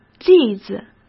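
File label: "例子", Mandarin Chinese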